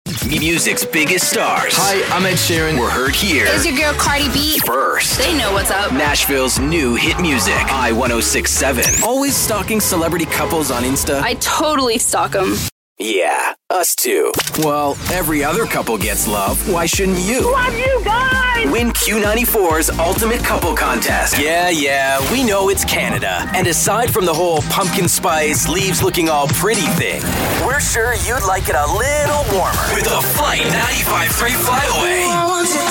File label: canadian imaging chr